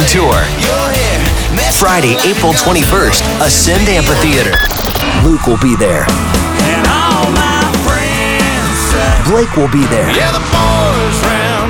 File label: Country Imaging